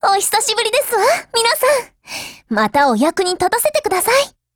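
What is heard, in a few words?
FORMATION Japanese; 88typeMod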